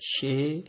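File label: "shey", Nigerian Pidgin, male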